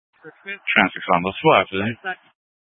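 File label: Sheriff Dispatch, Scanner audio recording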